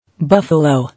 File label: "Buffalo", English